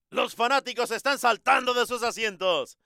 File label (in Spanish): spanish; izotope